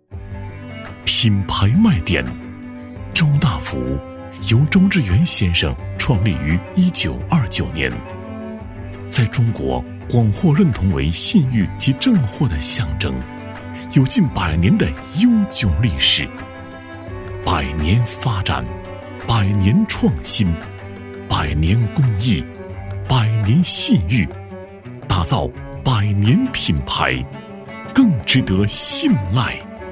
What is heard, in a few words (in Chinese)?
男 广告; 周大福